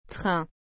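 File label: "train", French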